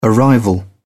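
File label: "arrival", British English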